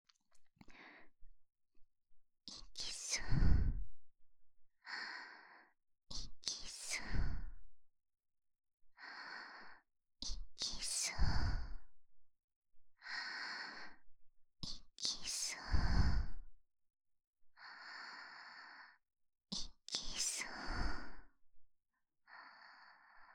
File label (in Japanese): イきそう, ASMR, 右耳